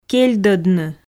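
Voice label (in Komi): "кельдодны", Komi, female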